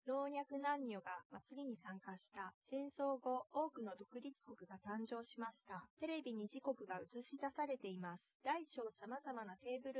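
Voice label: female speech; SiSEC10; underdetermined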